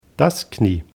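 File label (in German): "knie", German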